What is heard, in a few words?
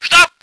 voice ger vehicle